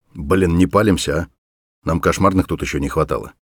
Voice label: mutter, voice; Escape from Tarkov